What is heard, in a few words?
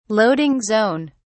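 "loading zone", British English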